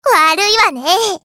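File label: character voice Emotion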